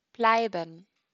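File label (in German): "bleiben", German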